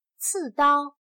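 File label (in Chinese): "刺刀" Mandarin Chinese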